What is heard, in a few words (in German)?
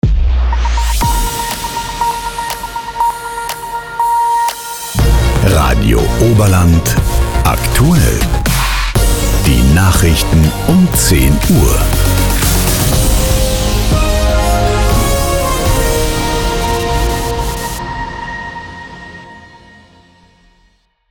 Aktuell Nachrichten; RADIO OBERLAND; 10 Uhr